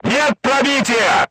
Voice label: voice message armor not pierce, crew tanks russian